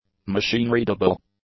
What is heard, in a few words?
"machine-readable", English; adjectives